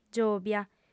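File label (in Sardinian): "giòbia", Sardinian, female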